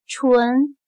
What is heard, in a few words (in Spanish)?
"纯", Chino